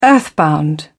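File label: "earthbound", British English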